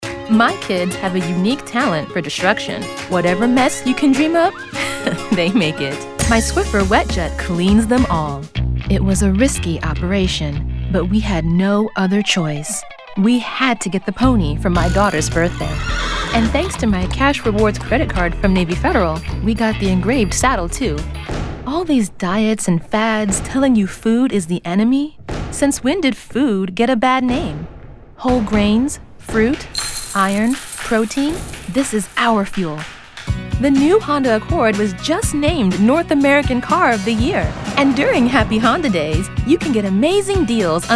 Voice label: Commercial, female voice actor